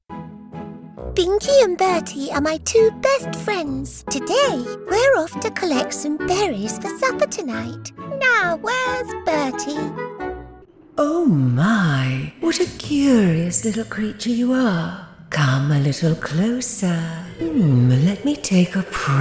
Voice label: english british animation